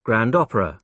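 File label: "grand opera", British English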